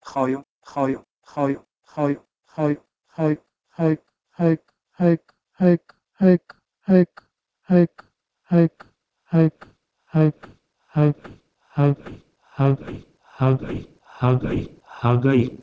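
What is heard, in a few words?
Proto-Indo-European h2ouio to Pashto hagey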